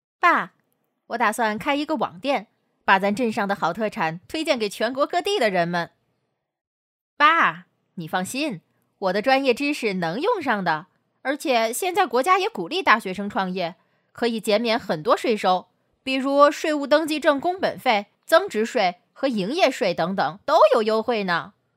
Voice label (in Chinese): 女 角色 20-25岁; 应界毕业生